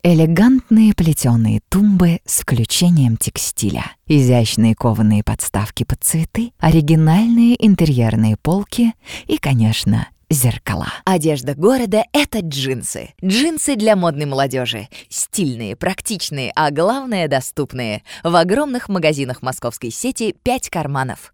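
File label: Russian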